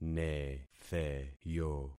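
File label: "netheyo", concatenated syllables; Affixing experiment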